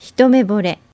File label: hitomebore, japanese